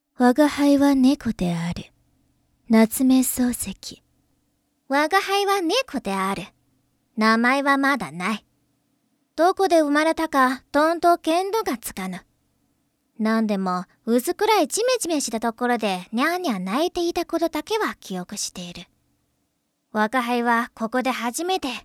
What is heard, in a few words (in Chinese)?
女; 吾辈是猫